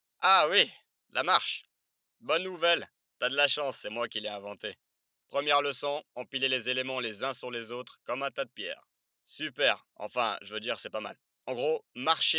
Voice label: Character Narcissic confident animation